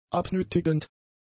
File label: "abnötigend", German; adjectives